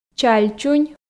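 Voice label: "чаль чунь", Komi, female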